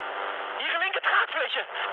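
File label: German left wing mood high, speech; War Thunder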